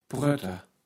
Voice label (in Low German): "Prötter", Platt